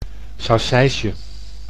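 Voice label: "saucijsje", Dutch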